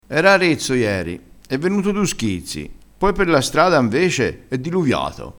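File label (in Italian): "schizzi", dialettale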